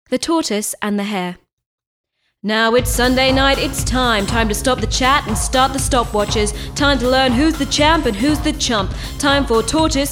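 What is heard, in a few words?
Childrens story, male voice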